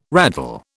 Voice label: "raddle", British